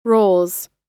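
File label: "rolls", American English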